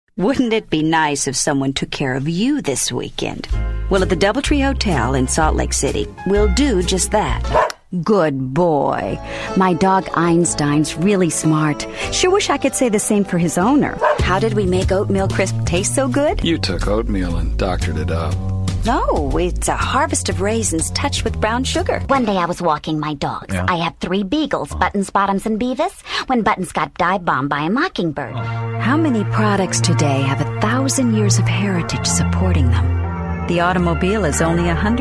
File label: Commercial